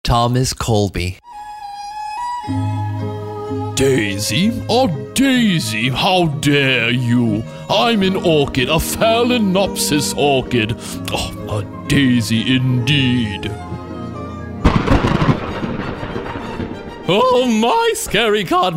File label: animation voice over